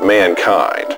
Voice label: LoFi Words, LoFi Vocals